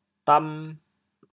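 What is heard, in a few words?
"dam2", Teochew, chaoyang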